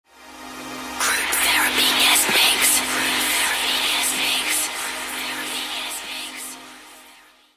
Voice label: female voice sms